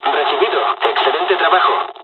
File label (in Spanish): dialogs spanish; War Thunder